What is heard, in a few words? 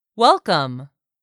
"WELCOME", English; 3000 words